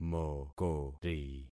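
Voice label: "mokori", concatenated syllables; Affixing experiment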